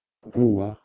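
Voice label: "bhuh2", Proto-Indo-European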